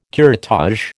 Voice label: "curettage", American